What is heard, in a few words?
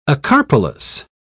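"acarpelous", English, British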